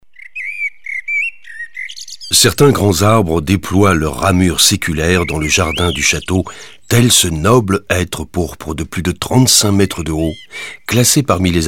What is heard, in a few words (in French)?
audioguide fr adulte; modave